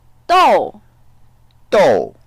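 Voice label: "dou4", Mandarin Chinese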